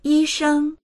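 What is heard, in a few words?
"yi1sheng1", Chinese; 医生; 医師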